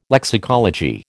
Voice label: "lexicology", American